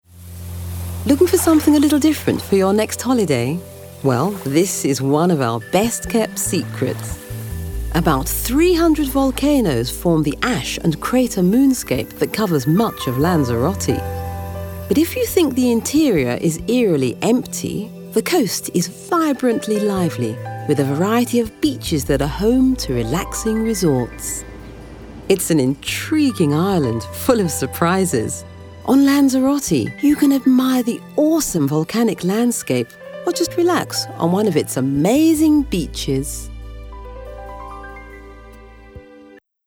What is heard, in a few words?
Travel Commercial; Lanzarote